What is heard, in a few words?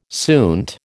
"suint", American